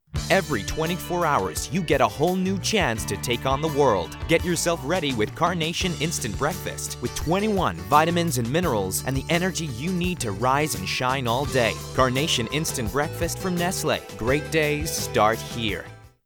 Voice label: Commercial US